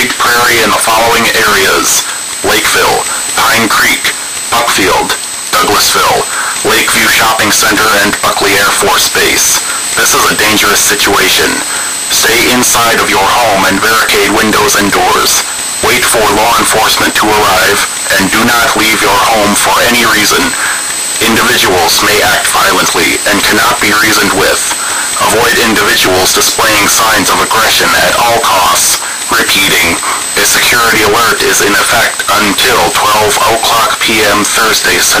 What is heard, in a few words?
Eas Warning Of Civil Unrest And Or Zombies